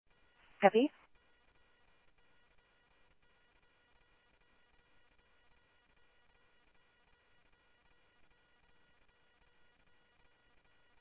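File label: police radio scanner traffic, Mesa Simulcast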